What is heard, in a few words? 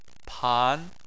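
"pan" second tone, Chinese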